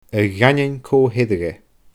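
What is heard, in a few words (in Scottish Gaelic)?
"a dhaindeoin coo theireadh e", Scottish Gaelic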